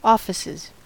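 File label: "offices", American English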